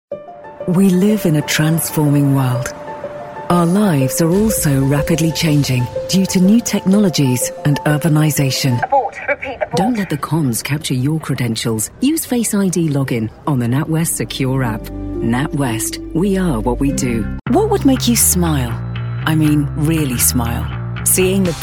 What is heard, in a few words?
Commercial British